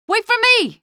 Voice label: squad reinforce single, female; Half-Life 2